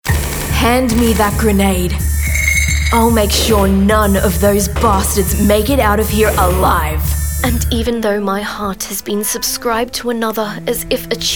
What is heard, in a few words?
Character